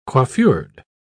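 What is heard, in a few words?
"coiffured", British English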